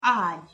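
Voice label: "agy", Hungarian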